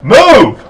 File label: male move; lmctf